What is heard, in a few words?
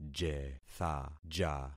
"jethaja", concat syllables; Affixing experiment